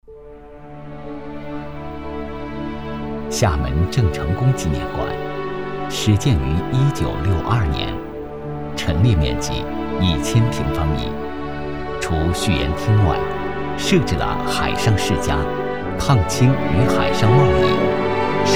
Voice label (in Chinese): 专题片-男46; 郑成功纪念馆